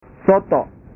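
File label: "soto", Indonesian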